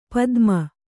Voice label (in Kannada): "ಪತಮ", Kannada